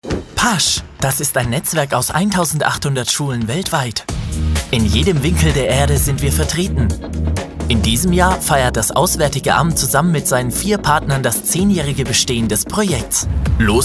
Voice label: german narration